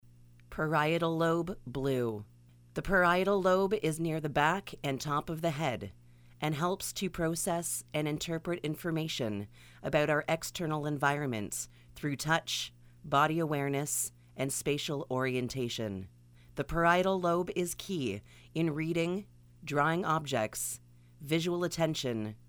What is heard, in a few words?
Parietal Lobe, EN; chapter 1